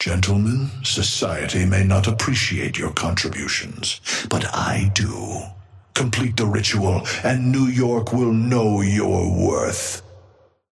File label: Patron male ally start; krill